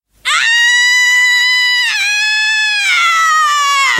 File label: yells loudly; ranma 1 2; akane